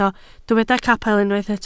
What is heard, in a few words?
"capel", Welsh